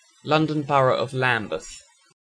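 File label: "Lambeth" British English; Ламбет (боро Лондона)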